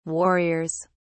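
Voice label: "warriors", British English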